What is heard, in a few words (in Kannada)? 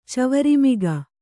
"ಚವರಿಮಿಗ", kannada